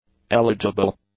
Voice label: "eligible", English; adjectives